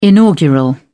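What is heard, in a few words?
"inaugural", British English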